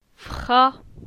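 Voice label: "Fra", French; phonetique; la syllabe a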